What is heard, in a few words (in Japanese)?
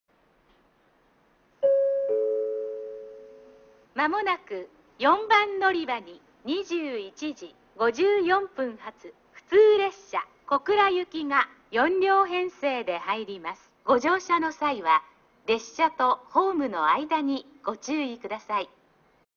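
4番のりば予告放送, 中津駅; 普通 小倉行き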